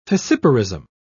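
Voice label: "fissiparism", British English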